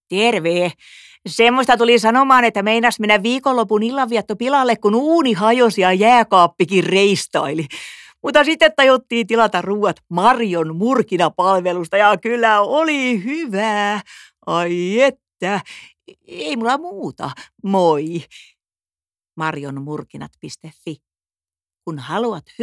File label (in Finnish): radio, arkisesti hauska; marjon murkinapalvelu